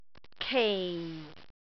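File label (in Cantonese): "kei4", Cantonese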